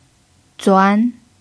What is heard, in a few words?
"zuan2", Chinese pinyin